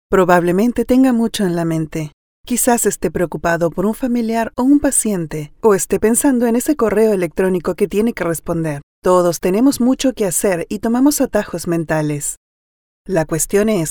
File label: NARRACION ELEARNING, Latin Spanish Neutral Female, E-Learning, Educativo Capacit. Antisesgos; BELONGKP